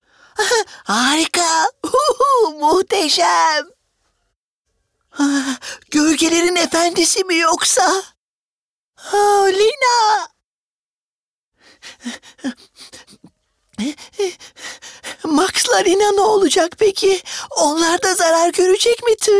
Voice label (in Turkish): ANIMASYON, tr